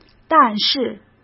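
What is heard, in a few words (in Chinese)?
"但是", Mandarin Chinese; hsk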